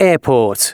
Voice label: announcements male